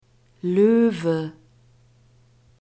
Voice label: "loewe", german; n declension